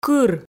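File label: "кыр", Komi, female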